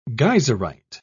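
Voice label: "geyserite", British English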